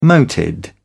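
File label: "moated", British English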